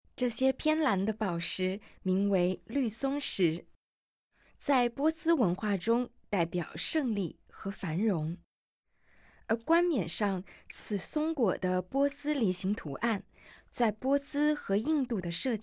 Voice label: Mandarin, audio guide